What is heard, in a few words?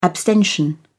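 "abstention", British English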